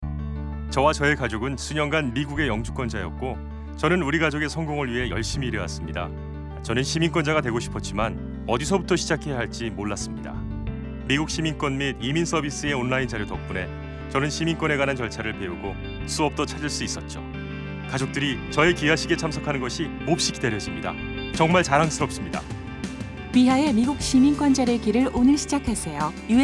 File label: radio PSA Korean; USCIS; Outreach Initiative; MyPath